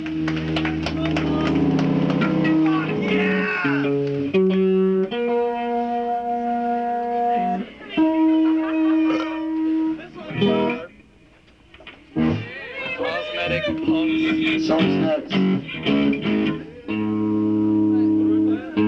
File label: Tuning Banter